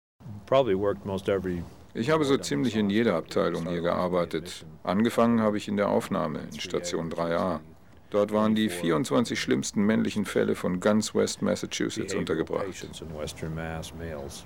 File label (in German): Voice over; 3sat; Schrei der Vergessenen